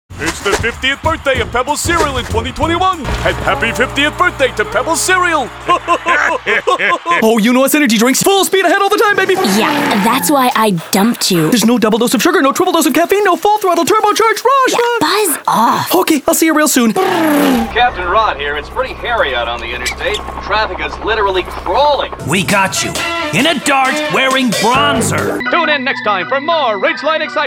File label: Commercial Character